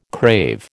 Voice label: "crave", American

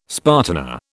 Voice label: "spartina", British

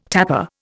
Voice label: "tapper", Indian

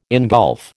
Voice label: "ingulf", American

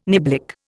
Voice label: "niblick", Indian

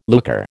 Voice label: "looker", American

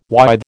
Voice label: "withe", American

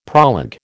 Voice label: "proleg", American

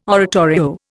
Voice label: "oratorio", Indian

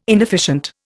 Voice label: "inefficient", Indian

